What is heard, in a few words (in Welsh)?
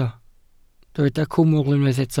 "cwmwl" Welsh